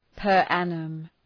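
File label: "per annum", English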